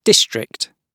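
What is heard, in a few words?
"district", British English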